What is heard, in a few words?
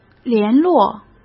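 "联络", Mandarin Chinese; hsk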